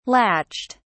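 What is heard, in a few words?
"latched", British English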